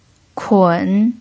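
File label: "kun", Mandarin Chinese pinyin, tone 3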